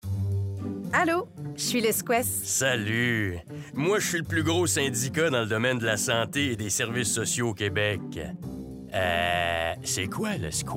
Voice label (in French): RADIO Voix Off; 60sec; Sqees-FTQ